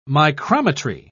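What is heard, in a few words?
"micrometry", British English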